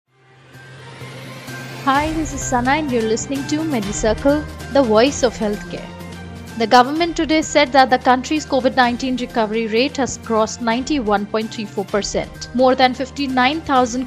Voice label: english news